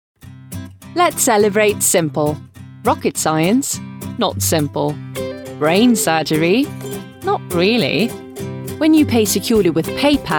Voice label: Ad English; Paypal; LOFT VOICES